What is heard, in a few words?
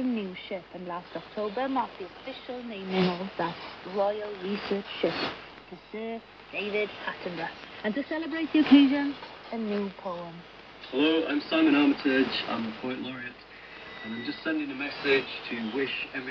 Antarctic Midwinter Broadcast 7360; Woofferton UK; Rio Primero Cba Argentin